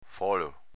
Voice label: "folo", dialetto veneto; s.m. soffietto

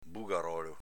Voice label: "bugarolo", dialetto veneto

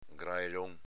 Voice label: "graelon", Veneto

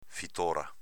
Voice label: "fitora", dialetto veneto

